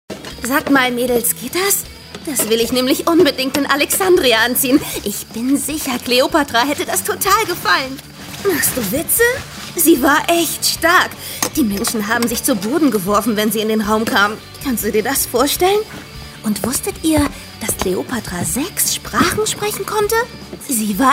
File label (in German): Hoerspiel